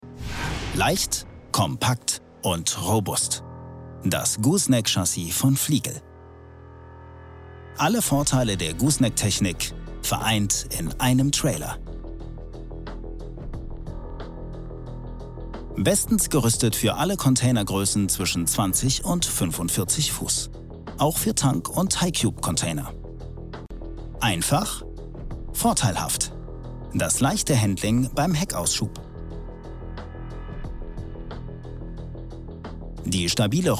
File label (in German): produktfilm; fliegl